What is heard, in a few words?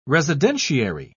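"residentiary", British English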